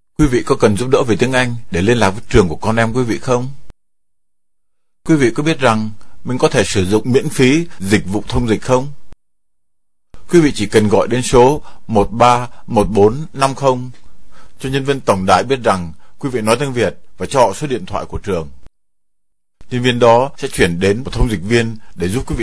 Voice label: vietnamese; public schools; going to a public school